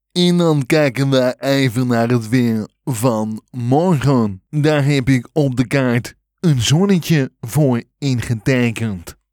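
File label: Comedy Dutch weatherguy native Dutch, male; Piet Paulusma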